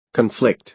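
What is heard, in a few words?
"conflict", American English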